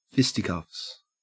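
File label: "fisticuffs", Australian English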